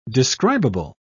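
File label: "describable", British English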